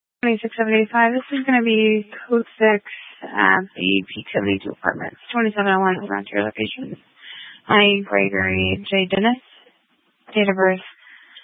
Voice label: Sheriff Dispatch, scanner